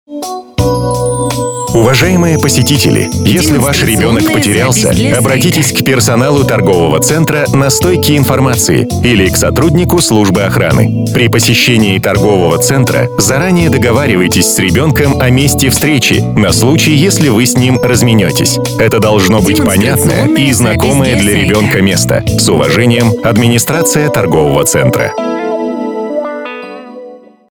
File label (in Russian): Куда обращаться, если в ТЦ потерялся ребенок мужской